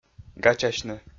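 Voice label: "гачасьны", Komi, male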